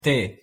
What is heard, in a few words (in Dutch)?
"té", Galicisch; thee-in-andere-talen